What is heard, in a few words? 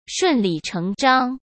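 "顺理成章" Mandarin Chinese; hsk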